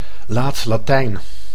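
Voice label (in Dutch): "laat Latijn", Dutch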